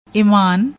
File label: "emaan", Punjabi; ਇਮਾਨ